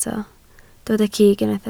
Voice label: "cig", Welsh